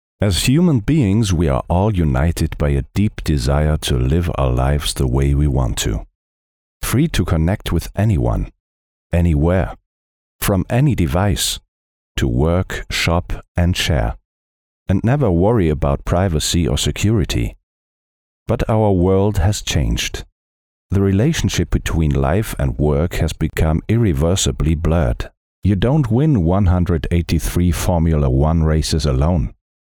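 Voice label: German, male, english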